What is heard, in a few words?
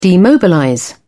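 "demobilize", British English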